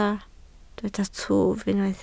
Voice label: "twf", Welsh

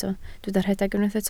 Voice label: "rhedeg", Welsh

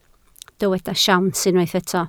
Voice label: "siawns", Welsh

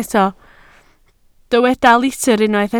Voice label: "litr", Welsh

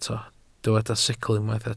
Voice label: "sicl", welsh

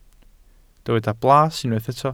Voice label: "blas", welsh

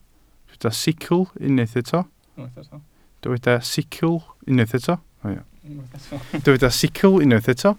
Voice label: "sicl", welsh

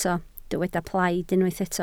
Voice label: "plaid", Welsh

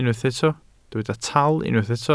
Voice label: "tal", welsh

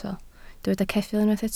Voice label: "ceffyl", Welsh

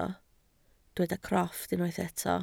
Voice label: "crofft", Welsh

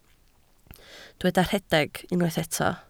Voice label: "rhedeg", Welsh

